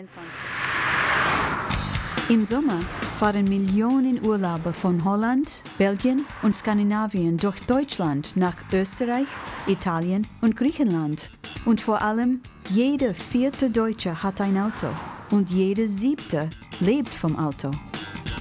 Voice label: german, international